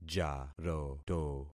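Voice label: "jaroto", concatenated syllables; Affixing experiment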